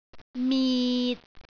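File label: "mit6", Cantonese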